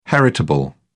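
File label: "heritable", British English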